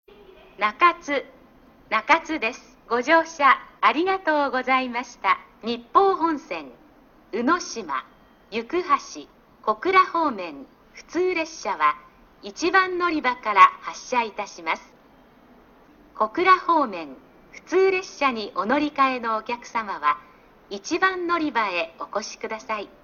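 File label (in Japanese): 詳細乗り換え放送, 中津駅; 小倉方面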